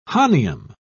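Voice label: "hahnium", British English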